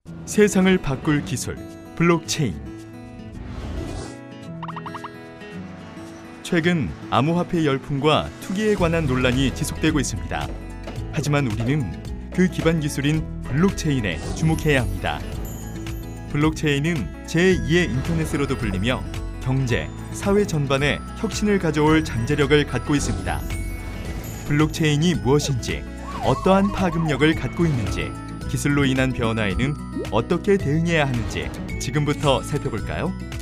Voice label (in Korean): 밝고 지적인 내레이션